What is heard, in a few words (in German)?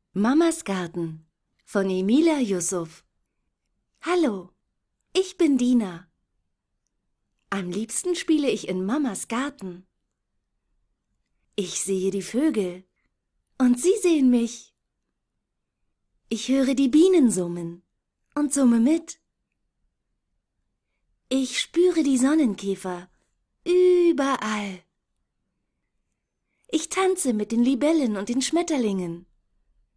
ebook kinderhoerspiel; mamas garten